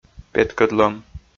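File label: "петкӧдлӧм", Komi, male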